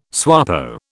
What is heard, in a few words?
"SWAPO", British